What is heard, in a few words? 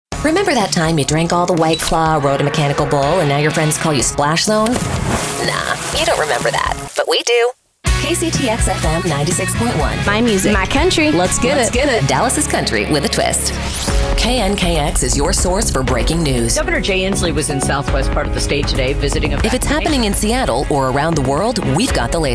Radio Imaging